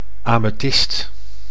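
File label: "amethist", Dutch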